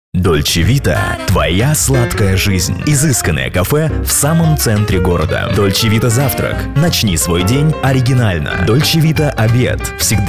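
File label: Russian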